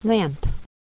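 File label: "lamp", English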